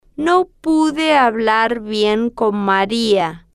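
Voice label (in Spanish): no puede hablar bien con Maria, Spanish, female, slow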